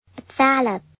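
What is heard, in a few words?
Arabic FOX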